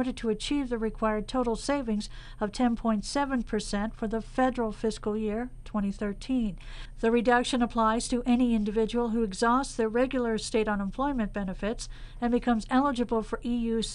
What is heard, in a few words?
wyoming radio news